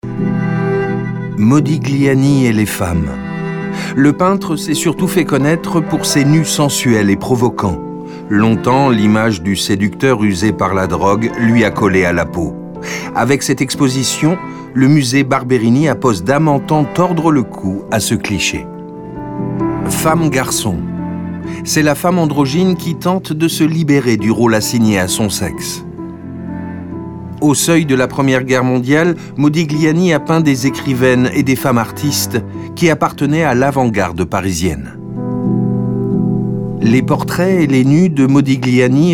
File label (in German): Doku